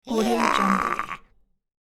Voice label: cartoon crow win yeah; cartoon sounds